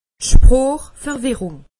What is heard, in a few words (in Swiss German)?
"sproochverwirrung", alsace; medecine